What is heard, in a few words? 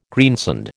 "greensand", American